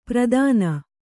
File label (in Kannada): "ಪ್ರದಾನ", Kannada